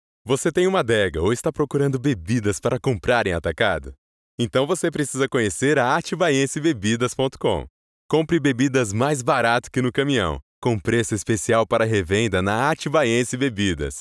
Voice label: portuguese brazilian corporate videos

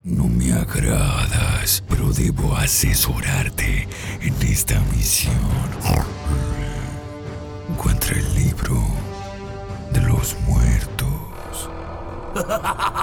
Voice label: spanish latin american video games

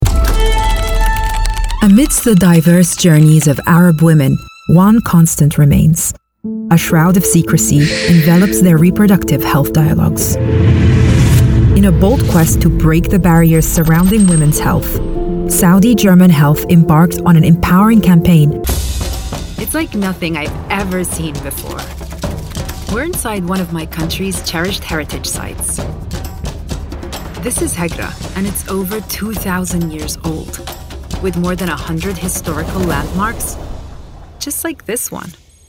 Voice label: english international commercial

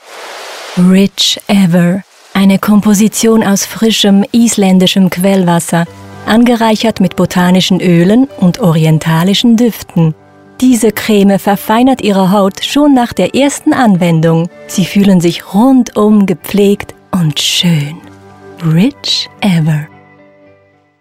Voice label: german commercial

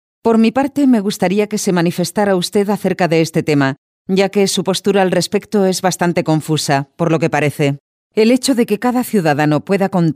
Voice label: spanish video games

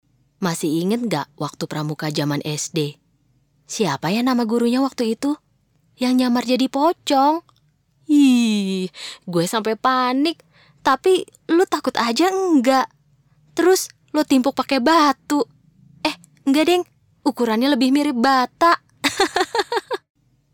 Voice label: indonesian video games

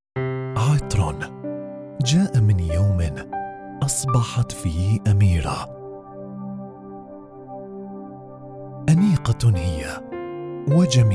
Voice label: arabic

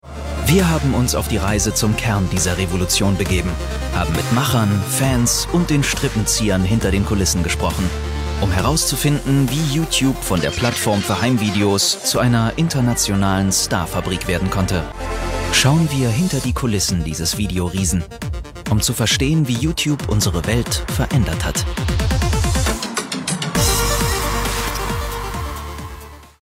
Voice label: german documentaries

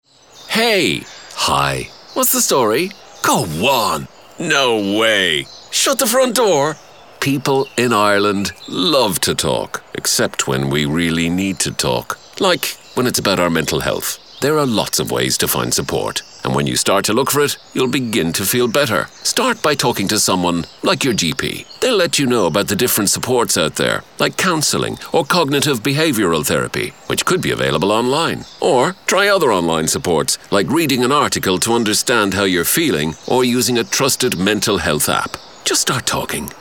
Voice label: english irish promos

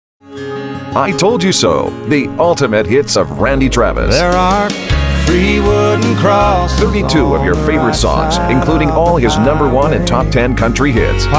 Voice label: english canadian radio imaging

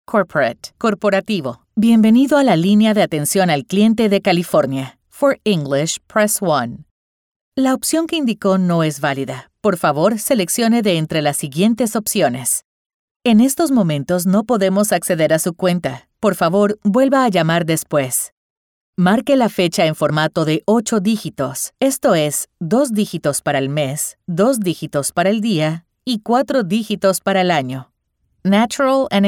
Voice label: Spanish Latin American ivr

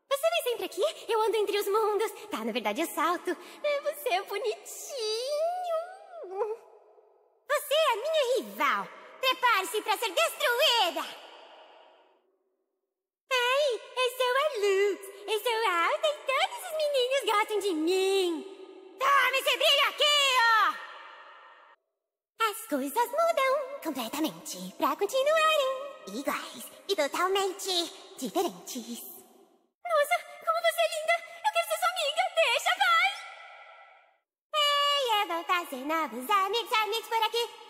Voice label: portuguese brazilian cartoon animation

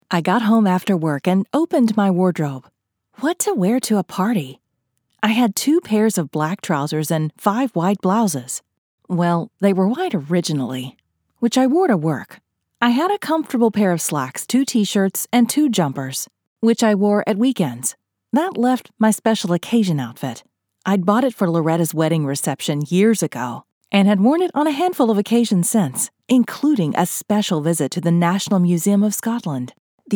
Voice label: english american audiobooks

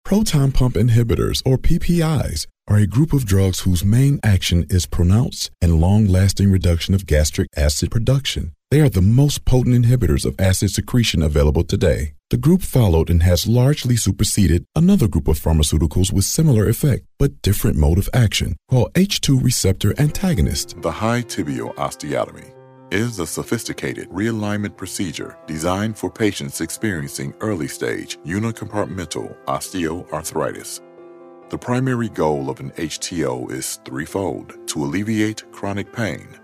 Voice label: english american medical narration

